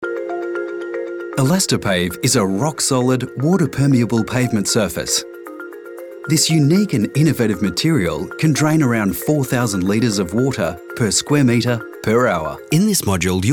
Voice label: Corporate; EM Voices

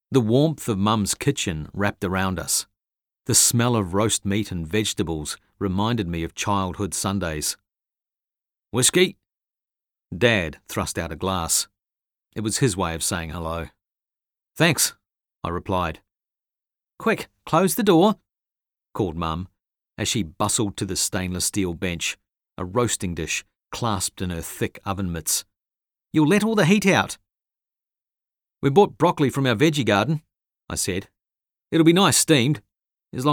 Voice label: NZ audiobook

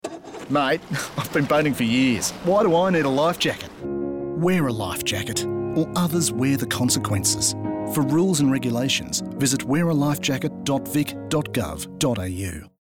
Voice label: Bloke and Serious Tag; Boat Safety Victoria

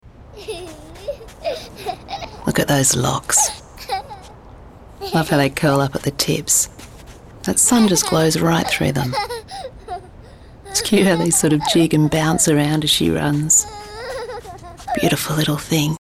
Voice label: Little Girl

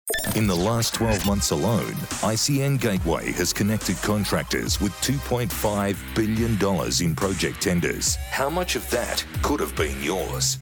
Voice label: Corporate

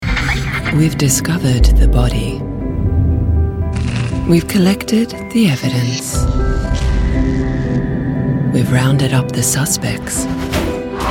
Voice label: promo; EM Voices

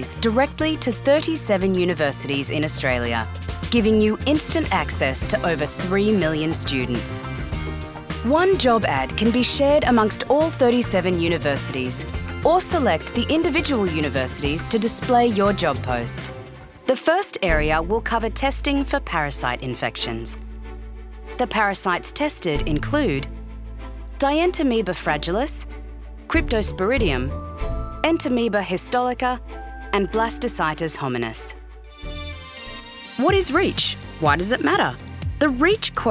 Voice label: corporate

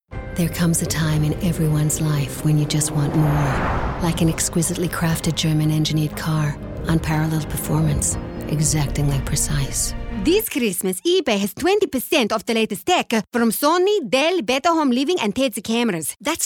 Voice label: accent

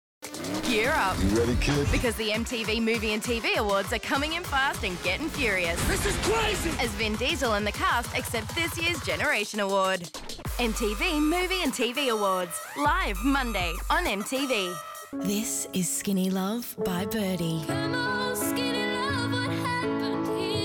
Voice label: promos

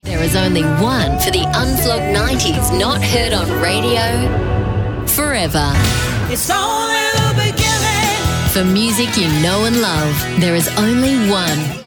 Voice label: RADIO STATION ADVERTISEMENT

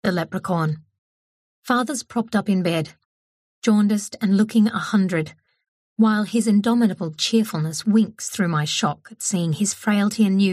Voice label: Audiobook

